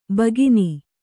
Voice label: "ಬಕ್ಕನೆ", kannada